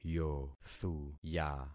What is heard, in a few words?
"yothuya", concat syllables; Affixing experiment